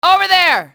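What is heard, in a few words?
over there, english female; XBOX; enemy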